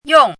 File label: "yong4", pinyin